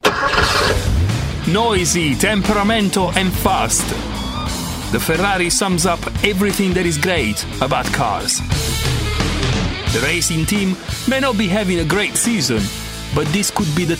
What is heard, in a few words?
ENG